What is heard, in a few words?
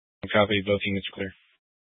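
Incident Tac 1, Scanner audio recording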